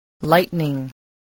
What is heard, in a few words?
"lightning", voice